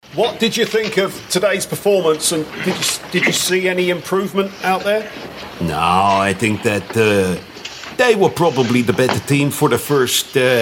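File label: British Voice Of God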